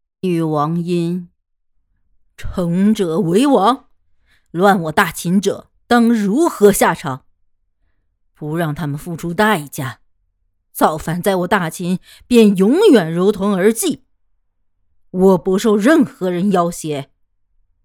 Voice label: 女王音

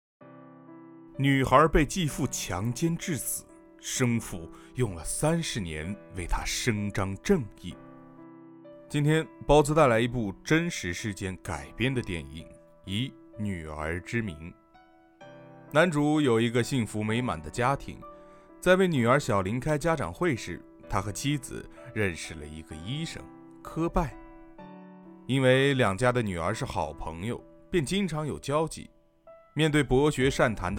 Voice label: 旁白

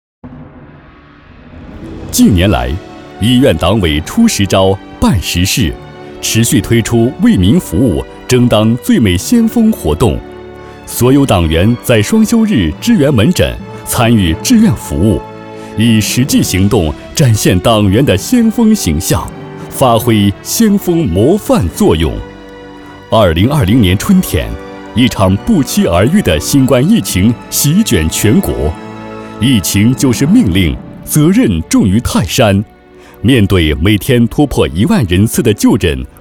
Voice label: 稳重大气政府专题

